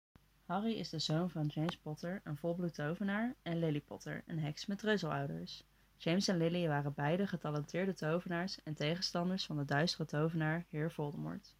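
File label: Language Dutch, voice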